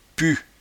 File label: "pus", patois jura